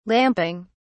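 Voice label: "lamping", British English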